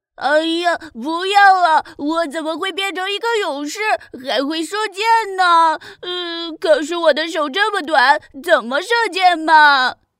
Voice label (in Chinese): 角色音男童